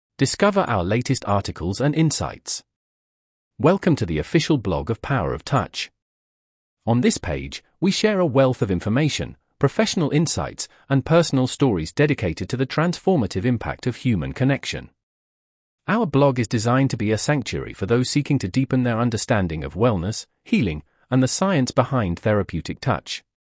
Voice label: text to voice